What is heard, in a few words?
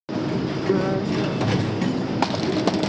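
"ke3yi3", Mandarin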